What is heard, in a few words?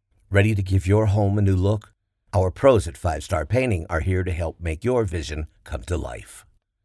Painting Service Company VO